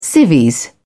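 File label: "civvies", British English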